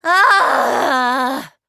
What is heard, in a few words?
nervous